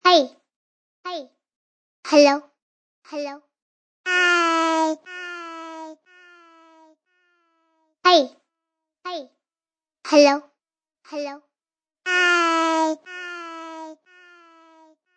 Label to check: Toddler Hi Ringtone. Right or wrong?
Right